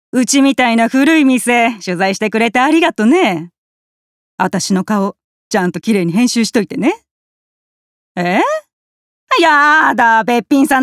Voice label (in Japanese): 食堂おかみ50代